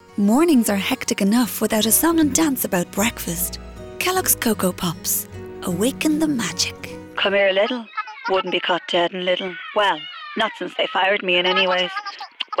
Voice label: Voiceover